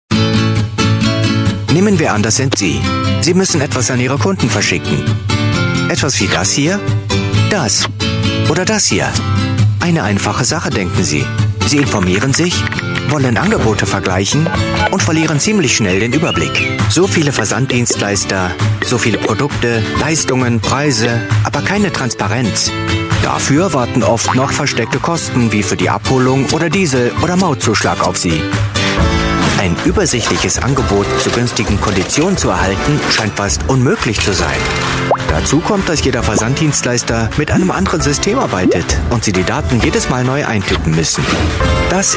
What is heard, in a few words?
german voice over male narrator